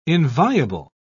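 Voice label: "inviable", British English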